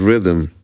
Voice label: LoFi Words, LoFi Vocals

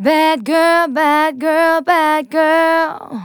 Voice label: Phrase Female; Vengeance Samples; Phrases

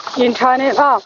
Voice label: LoFi Phrases, LoFi Vocals; Vengeance Samples

